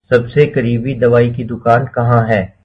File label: Where is the closest pharmacy, hindi